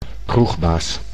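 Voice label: "kroegbaas", Dutch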